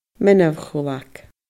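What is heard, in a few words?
"meanbh-chuileag", Scottish Gaelic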